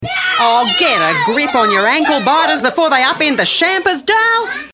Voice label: Aussie Read